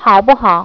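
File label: "hao3buhao", Chinese; HyperGlot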